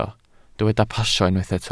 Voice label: "pasio", Welsh